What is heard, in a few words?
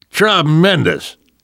reaction positive, survivor voice; namvet; Left 4 Dead 2; left4dead2 dlc2